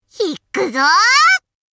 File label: run away, voice commands Anime Loli; svencoop